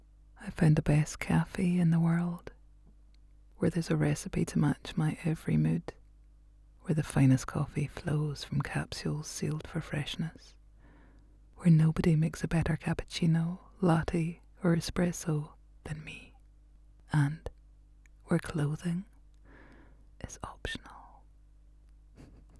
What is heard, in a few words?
Flirty Ad; Nespresso